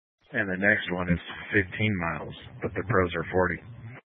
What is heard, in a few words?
Incident Tac 3, scanner